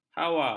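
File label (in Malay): "hawa", Malay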